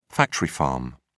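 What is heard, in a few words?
"factory farm", British English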